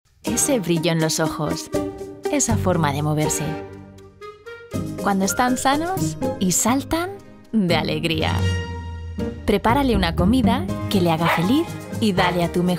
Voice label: Spanish commercial

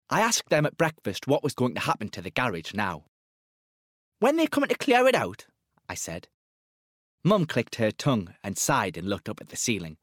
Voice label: Audiobook, Male. Newcastle; 20s-30s.; Studio.

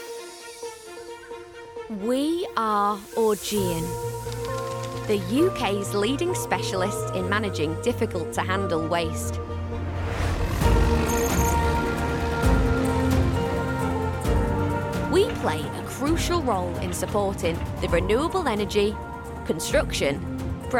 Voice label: Corporate; 20s-30s. Female. Studio. South African.

